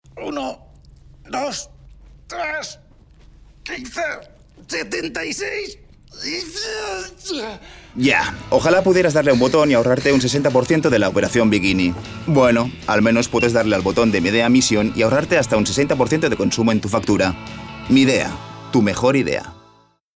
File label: male spanish energy comercial; ideasonora